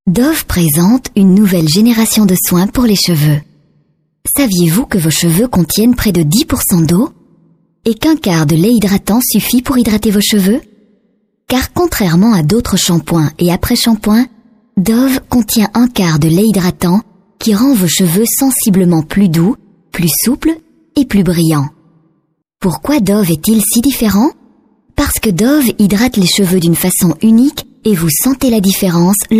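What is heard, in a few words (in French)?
Belgian French, female, narration; voice demos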